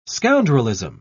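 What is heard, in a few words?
"scoundrelism", British English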